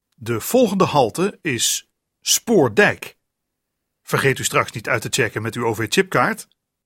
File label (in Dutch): vervoer bericht in tram of bus; taal werkt beter